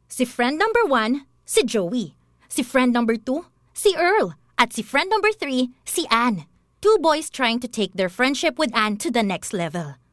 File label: Taglish (Trailer, Fun)